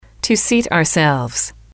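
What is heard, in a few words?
to seat our selves, English, female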